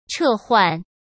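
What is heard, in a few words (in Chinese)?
"撤换" Mandarin Chinese; hsk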